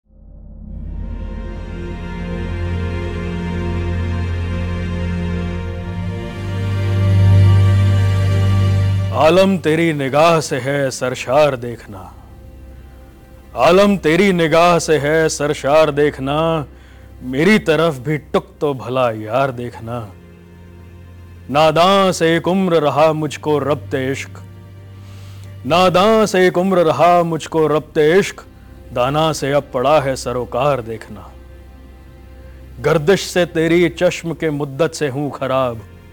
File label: reciting; Mah Laqa Chanda